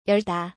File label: korean open yeolda